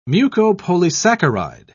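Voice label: "mucopolysaccharide", British English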